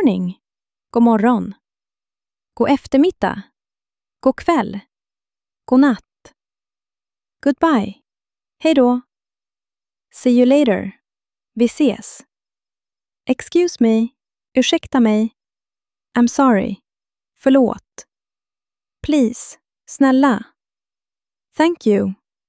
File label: saying hello, swedish; swedish beginners